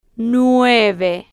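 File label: "nueve", Spanish, female, slow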